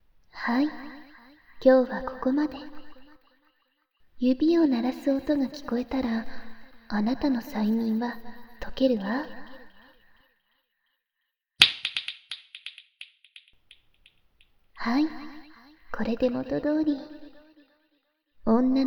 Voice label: 催眠解除, ASMR

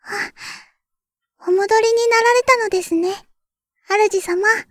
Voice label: ログオン サウンド, システムボイス; ベル・カールステッド

